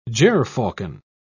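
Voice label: "gerfalcon", British English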